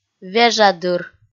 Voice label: "вежадыр" kpv f

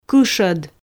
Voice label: "кышод" Komi

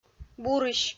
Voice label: "бурысь" kpv f

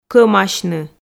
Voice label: "кӧмасьны" Komi

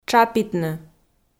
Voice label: "тшапитны", Komi

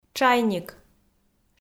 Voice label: "тшайник", Komi

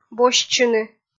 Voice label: "босьтчыны" kpv f

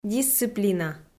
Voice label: "дисциплина", Komi

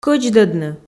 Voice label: "кӧдздӧдны" Komi

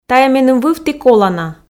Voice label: тайӧ меным вывті колана, f

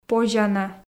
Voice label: "позяна", Komi

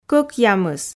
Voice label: "кӧкъямыс" Komi

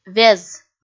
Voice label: "вез" kpv f

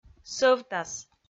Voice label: "сӧвтас", Komi

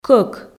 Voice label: "кӧк" Komi

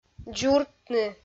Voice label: "дзуртны", Komi

